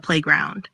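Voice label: "Playground", pronunciation; school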